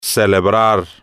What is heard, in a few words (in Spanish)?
"celebrar", spanish